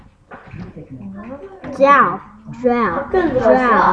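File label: "jab", spoken word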